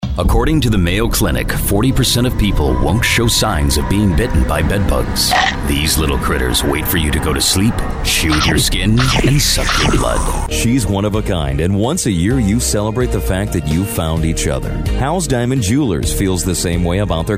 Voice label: audio commercial; voices

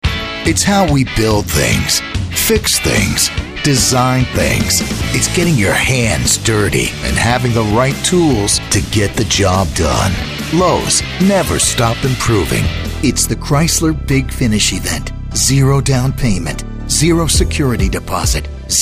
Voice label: commercial

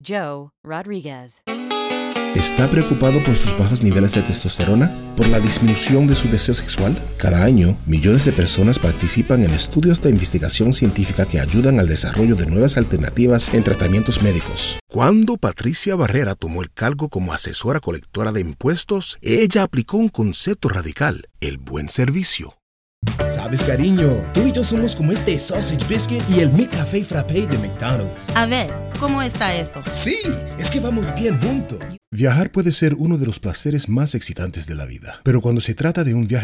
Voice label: Spanish

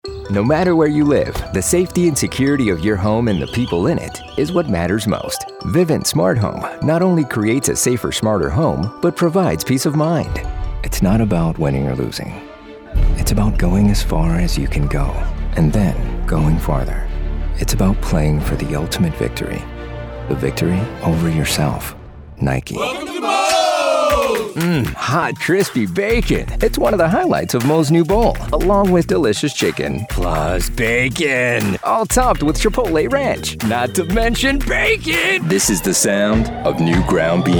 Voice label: Male Commercial

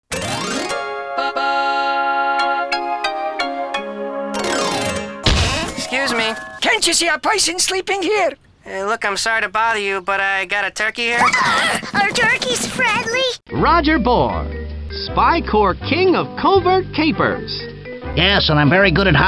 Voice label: Animation

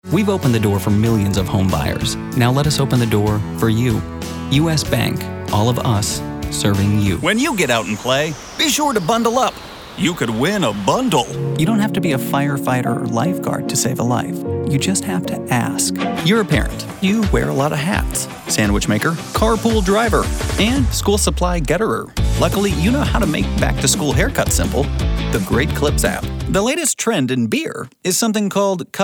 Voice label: male commercial

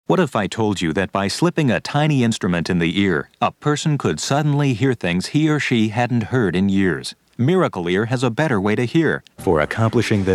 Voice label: Commercial

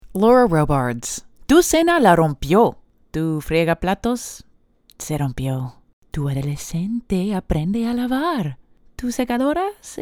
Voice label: female commercial spanish

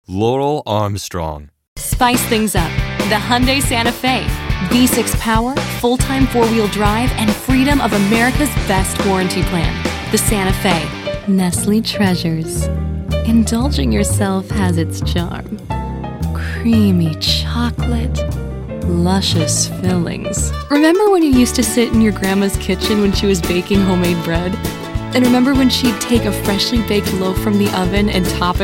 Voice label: Commercial

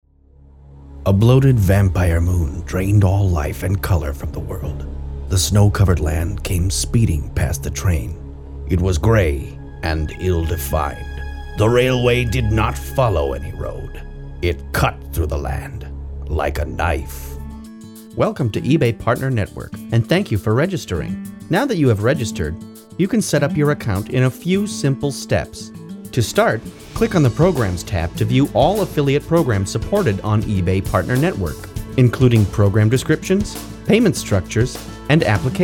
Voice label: male narration

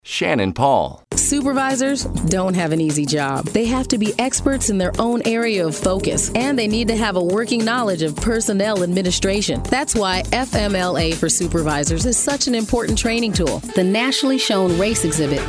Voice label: female narration

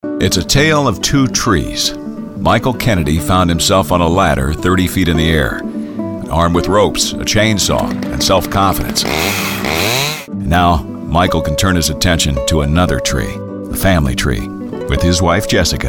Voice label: Narration

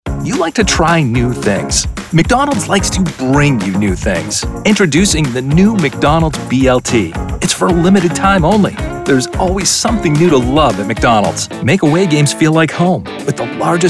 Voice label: Male, commercial

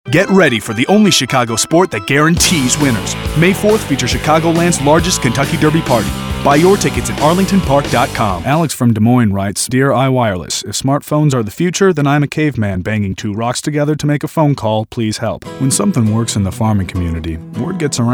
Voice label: Male, commercial

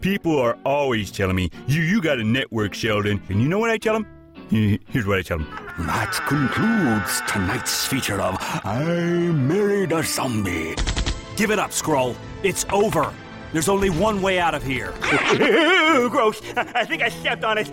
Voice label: Animation

